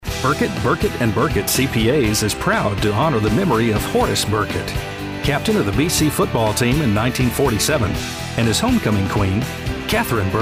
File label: CPAs Commercial; Burkett Burkett And Burkett